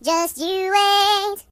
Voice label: Just you wait, voices Singer; hedgewars